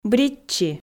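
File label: "бритчи", Komi, female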